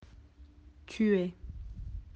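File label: "être", French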